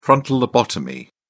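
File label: "frontal lobotomy", British English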